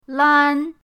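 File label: "lan1", Chinese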